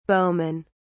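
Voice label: "bowman", English, British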